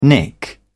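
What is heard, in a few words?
"nick", British English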